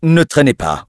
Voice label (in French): ne trainer pas, bloodelf male; PhoenixCraft-1.16.5; PhoenixNPCPack; minecraft